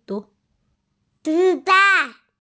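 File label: "zi3dan4", Mandarin Chinese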